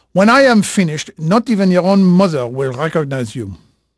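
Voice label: French male, voice; Pirates Of The Caribbean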